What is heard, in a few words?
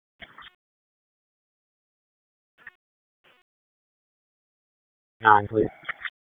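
Raleigh Police Northeast District Dispatch, p25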